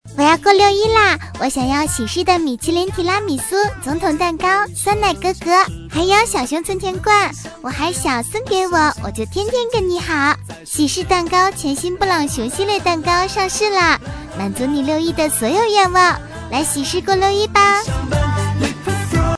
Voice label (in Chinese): 女声; 喜士蛋糕